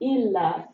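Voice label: "laver il", French; frans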